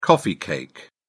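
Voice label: "coffee cake", English, British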